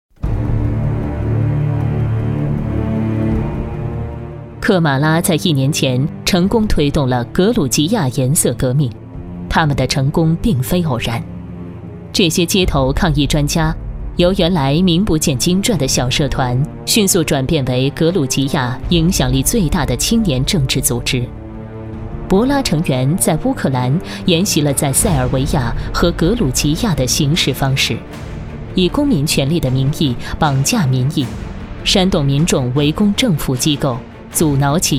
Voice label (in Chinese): 女 纪录片 乌克兰颜色革命